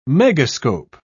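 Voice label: "megascope", British English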